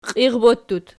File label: "qiirbottut", Archi